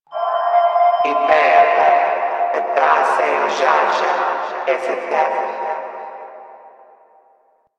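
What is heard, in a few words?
Announcer Female, English; Warframe; Corpus Venus Announcer; Battle Barks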